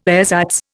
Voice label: "ersatz", Indian

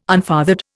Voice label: "unfathered", Indian

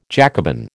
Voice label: "Jacobin", American

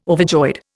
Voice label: "overjoyed", Indian

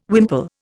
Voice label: "wimple", Indian

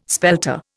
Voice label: "spelter", Indian

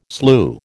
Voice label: "slue", American

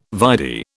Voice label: "vide", British

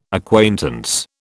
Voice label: "acquaintance", British

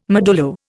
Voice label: "modulo", Indian

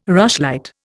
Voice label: "rushlight", Indian

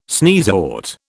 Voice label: "sneezewort", British

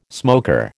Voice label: "smoker", American

